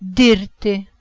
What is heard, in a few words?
"dirti", Lithuanian